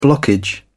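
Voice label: "blockage", British English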